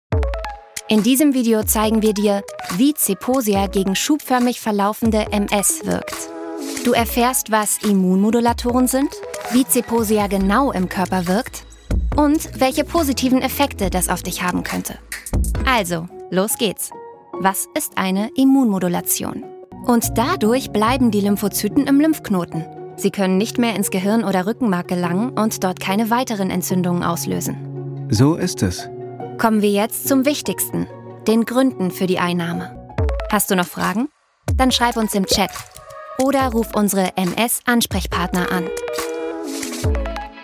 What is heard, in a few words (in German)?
Erklaerfilm; LOFT VOICES